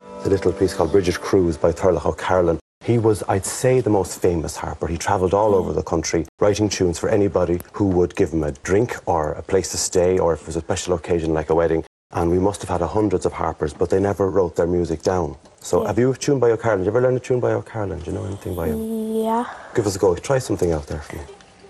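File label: English Irish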